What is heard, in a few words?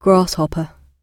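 "grasshopper", British English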